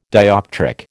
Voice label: "dioptric", American

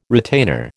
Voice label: "retainer", American

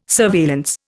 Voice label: "surveillance", Indian

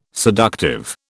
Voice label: "seductive", British

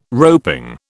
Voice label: "roping", British

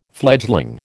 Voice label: "fledgling", American

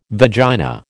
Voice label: "vagina", American

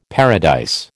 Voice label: "paradise", American

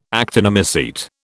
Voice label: "actinomycete", British

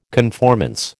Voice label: "conformance", American

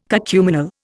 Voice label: "cacuminal", Indian

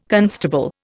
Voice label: "constable", Indian

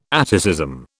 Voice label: "atticism", British

